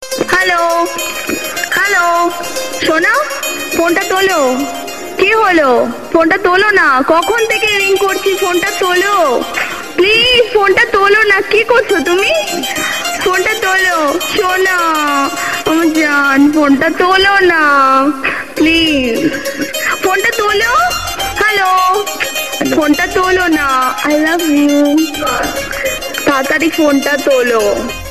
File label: Bengali hello ringtone